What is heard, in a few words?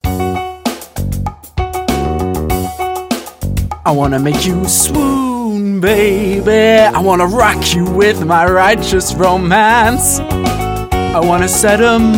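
English UK, musical; GoLocalise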